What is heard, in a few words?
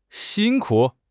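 "xin1 ku3", Mandarin Chinese; HSK4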